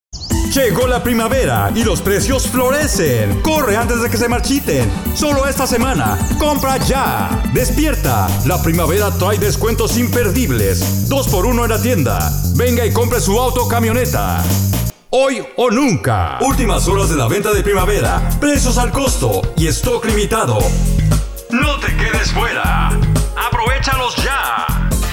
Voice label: Male

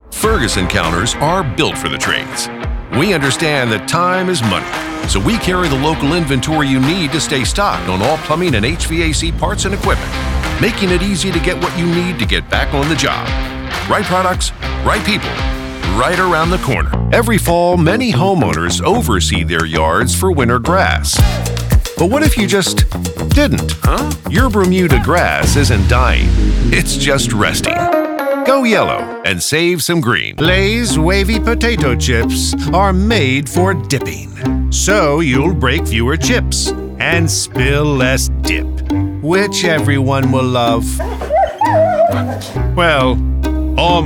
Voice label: commercial, male commercials

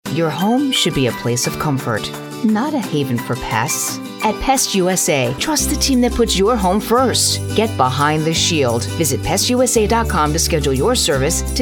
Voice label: Commercial, female commercials

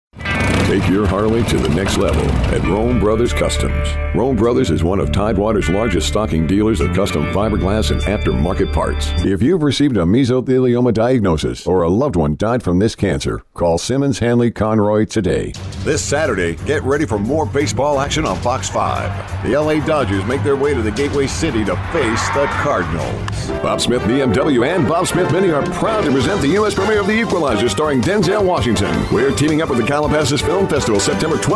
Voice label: Male Commercial; Internetjock

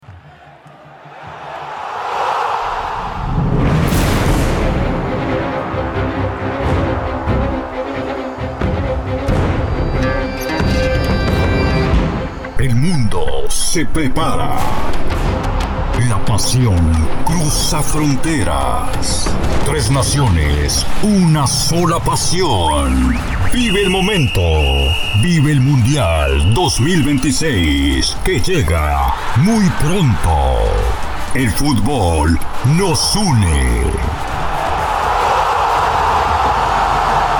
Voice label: Holiday Specialty Voices, Male; jock

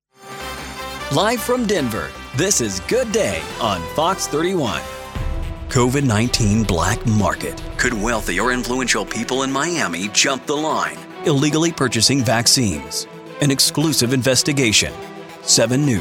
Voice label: Radio TV Imaging; 10 liners

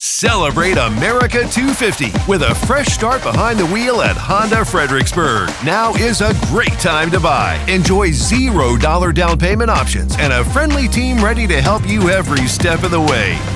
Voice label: car spots

